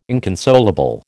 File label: "inconsolable", American